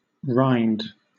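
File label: "rind", British English; pronunciations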